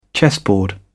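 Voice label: "chessboard", British English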